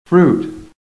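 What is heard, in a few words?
"fruit", American English; PHONETIC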